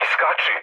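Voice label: Serbian bailout mood low, speech; War Thunder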